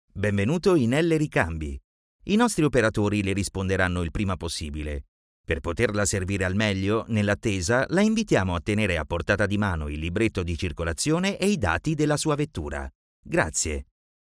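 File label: Italian, IVR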